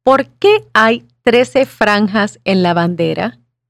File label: Spanish